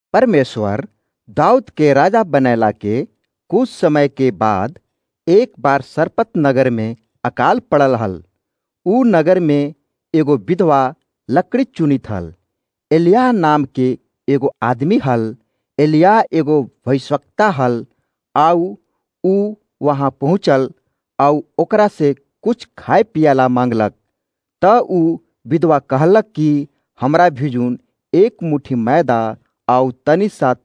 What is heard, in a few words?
magahi, drama; the one story; elijah and widow son